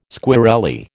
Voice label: "squirrelly", American